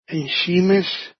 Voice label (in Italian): "en simes", Dialetto di Albosaggia; Scìmes; Cimice